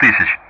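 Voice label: Speech Sound Russian; 1000s; Numbers; DCS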